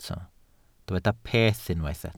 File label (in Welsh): "peth" Welsh